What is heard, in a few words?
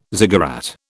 "ziggurat", British